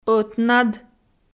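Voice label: "ӧтнад" Komi, female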